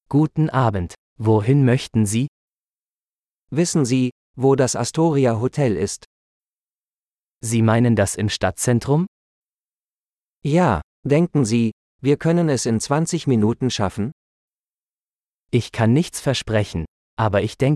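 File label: Taking a Taxi - Dialogue, German; de-en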